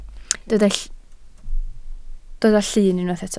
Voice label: "llun", Welsh